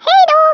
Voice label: Byebye, Speech, Swedish; 11khz; International